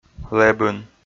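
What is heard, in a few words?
"лэбын", Komi, male; kpv audio dict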